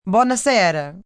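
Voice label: Good Evening Italian, Greetings, female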